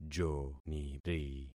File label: "joniri", concat syllables; Affixing experiment